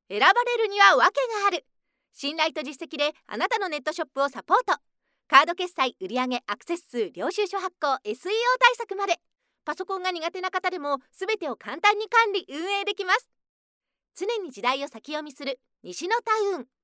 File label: female; 明るい